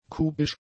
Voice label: "kubisch", German; adjectives

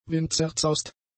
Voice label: "windzerzaust", German; adjectives

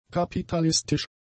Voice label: "kapitalistisch", German; adjectives

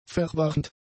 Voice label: "verwarnt", German; adjectives

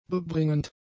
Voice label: "bebringend", German; adjectives

